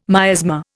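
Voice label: "miasma", English, Indian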